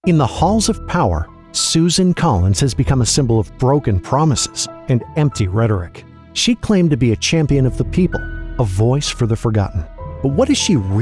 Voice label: Political Democrat, Male Political Commercial Voiceover; Democratic Political Voiceover